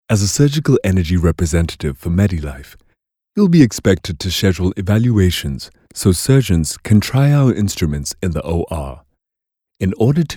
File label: English Corporate; OSM Talent